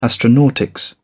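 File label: "astronautics", British English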